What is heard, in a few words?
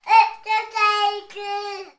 human toddler 21 months shout opps a daisy